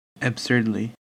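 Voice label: "absurdly", US English; pronunciation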